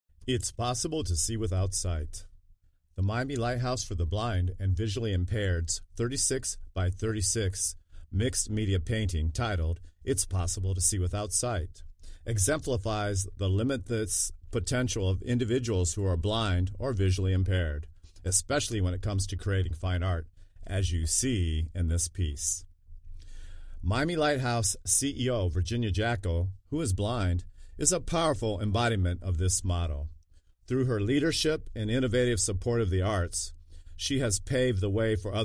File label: Voice over; Paint Pro; Its possible